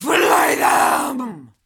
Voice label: Female Taunt, Cannibal, dialogue; Trunk Act One Monster